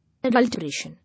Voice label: "Adulteration", English

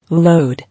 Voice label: "Load", English

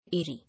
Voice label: "Airy", English

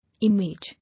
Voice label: "Image", English

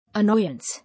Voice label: "Annoyance", English

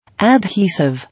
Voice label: "Adhesive", English

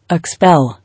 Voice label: "Expel", English

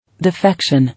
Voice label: "Defection", English

